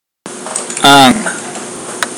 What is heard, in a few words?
"air", pohnpeian